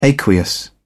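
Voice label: "aqueous", British English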